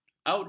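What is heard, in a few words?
"audit", Malay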